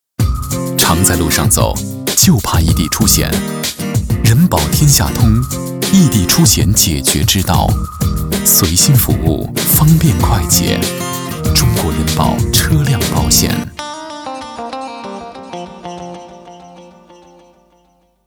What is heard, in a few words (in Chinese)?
广告 品牌形象 轻松, 男国; 人保车险